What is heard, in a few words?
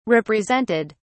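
"represented", British English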